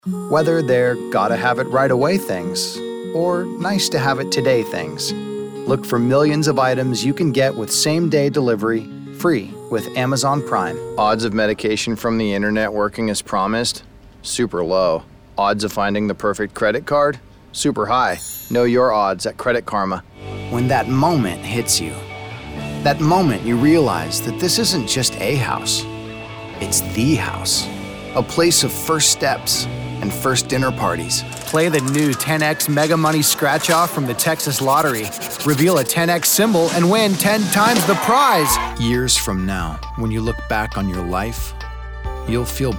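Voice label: Commercial, male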